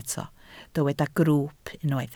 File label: "grwwp", Welsh